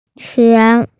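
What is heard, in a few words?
"tian2", Mandarin Chinese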